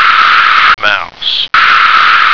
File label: "mouse", American